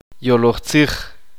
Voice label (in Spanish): uspanteko cuento